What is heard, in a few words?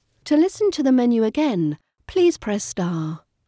Prompt; phonescripts